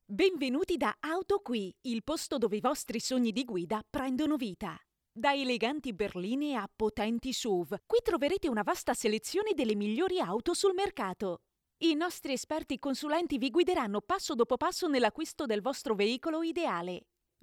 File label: Dry audio